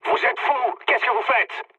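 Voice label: player killed ally, mood low, French; War Thunder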